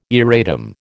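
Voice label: "erratum", American English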